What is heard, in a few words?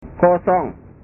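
"kosong", Indonesian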